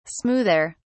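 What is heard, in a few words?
"smoother", British English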